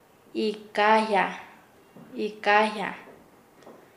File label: "encargo", Nasa Yuwe